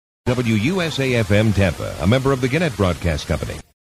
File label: Station ID; WUSA; 1986